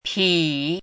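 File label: "pǐ", Chinese; 否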